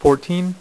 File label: "6", poker; iPoker 2000